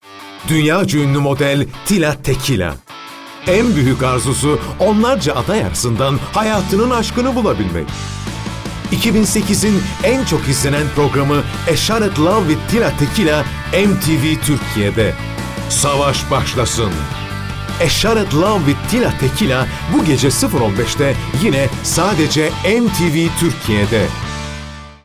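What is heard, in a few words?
Turkish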